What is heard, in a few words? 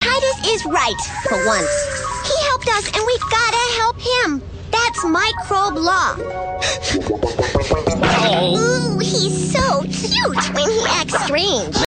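English US Charge Cartoon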